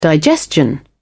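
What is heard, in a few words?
"digestion", en, British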